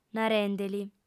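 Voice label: "narende·li", Sardinian, female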